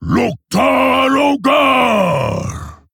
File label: Male Orc, Attack